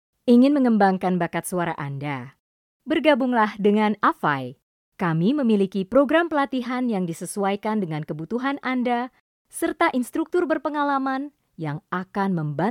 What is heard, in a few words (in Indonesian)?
Indonesian; Voiceover